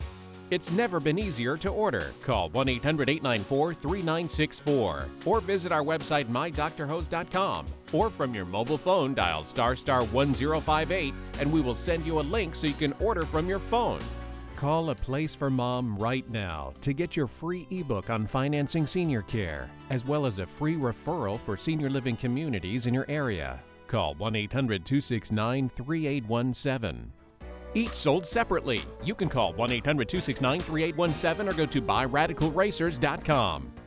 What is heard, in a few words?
english male voices american accent